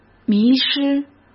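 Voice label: "迷失", Mandarin Chinese; hsk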